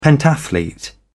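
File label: "pentathlete", English, British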